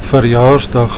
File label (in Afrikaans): "verjaarsdag", Afrikaans